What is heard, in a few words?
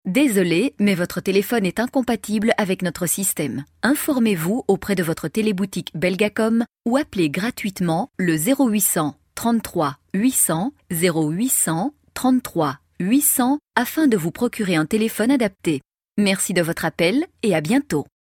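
French, female, telecom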